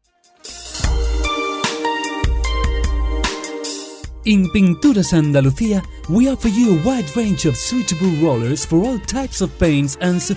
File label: promo, ingles; PINTURAS ANDALUCIA; RODILLOS